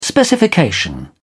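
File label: "specification", British English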